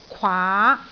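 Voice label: "kwaa2", Cantonese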